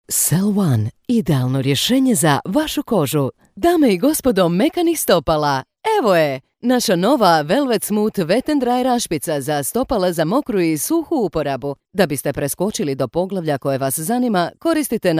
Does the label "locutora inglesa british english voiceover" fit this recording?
No